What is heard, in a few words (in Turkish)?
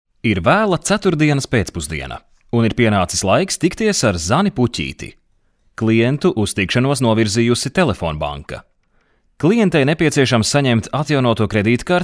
Letonca Erkek; letonca seslendirme; erkek sesler